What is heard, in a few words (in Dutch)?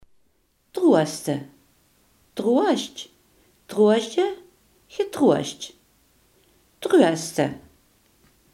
"troosten", limburgse